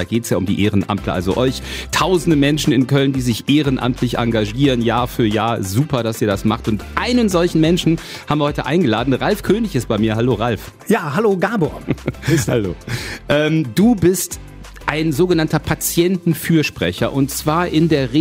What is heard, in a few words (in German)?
Interview Radio; Patientenfürsprache im Porträt - BPiK e.V.; Radio Köln